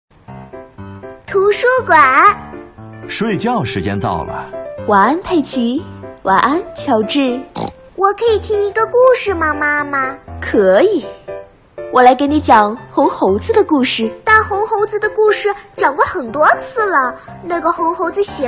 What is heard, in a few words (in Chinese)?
小猪佩奇模仿佩奇佩奇妈妈